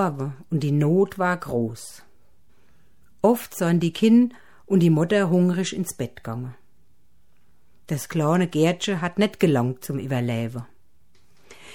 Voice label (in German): Schatzsucher, Mundart Hessen